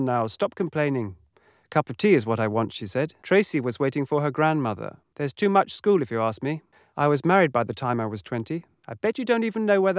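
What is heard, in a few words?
male, 130 ms, 5 cm, source; SiSEC11; underdetermined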